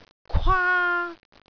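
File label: "kwaa1", Cantonese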